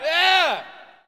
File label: Hockey Male "Yeah"; Hockey Vocal Sounds